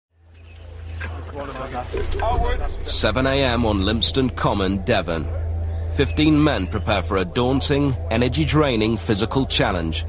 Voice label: Narration; 55yr old commando

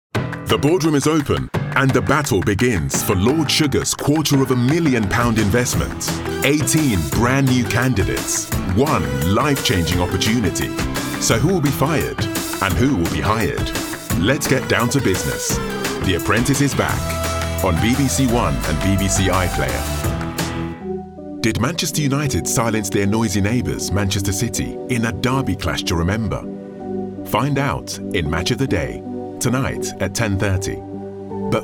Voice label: Promo

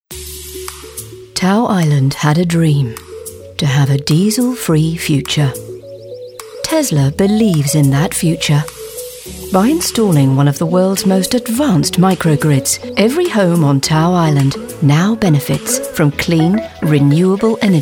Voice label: Commercials

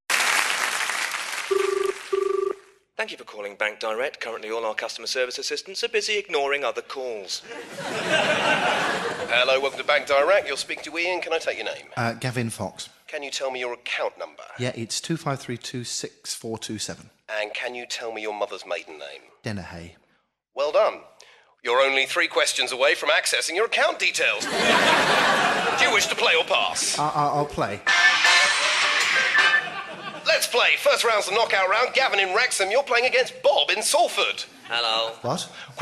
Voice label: Comedy; Bank Direct; Vocal Point

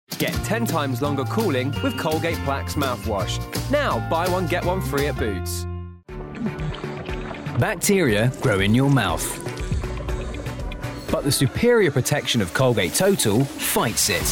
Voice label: Commercials; Colgate

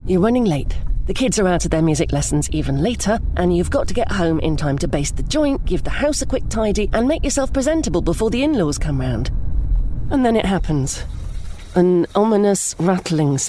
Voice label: Commercials Natural Style

